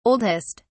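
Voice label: "oldest", British English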